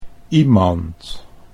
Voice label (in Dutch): "iemand", Dutch